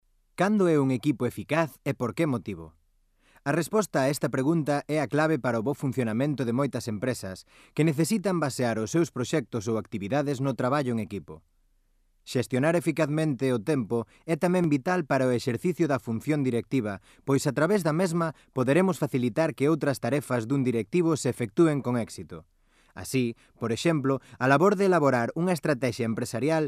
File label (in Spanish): locutor gallego; Galician